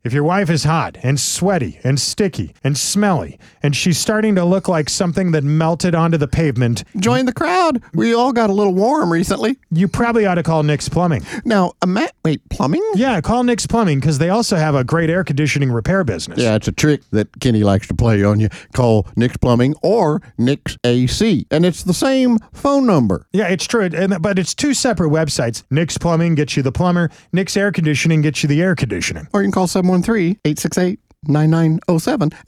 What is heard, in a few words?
RADIO AD; nicks plumbing radio ads